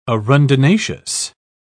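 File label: "arundinaceous", British English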